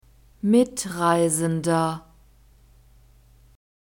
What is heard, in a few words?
"mitreisender", german